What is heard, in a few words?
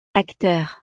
"acteur", francés; profesiones